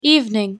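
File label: pronunciation English "evening"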